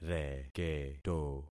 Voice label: "reketo", concat syllables; Affixing experiment